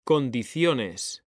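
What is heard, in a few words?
"CONDICIONES", Spanish; mekanta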